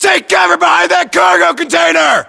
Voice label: US order cover cargo container, battlechatter, english